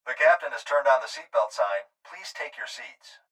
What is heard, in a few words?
Pilot Voice Seatbelt